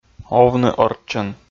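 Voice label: "овны орччӧн", Komi, male; kpv audio dict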